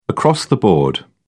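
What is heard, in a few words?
"across-the-board", British English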